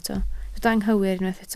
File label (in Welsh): "anghywir", Welsh